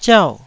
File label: "ziau4", Taiwanese syllable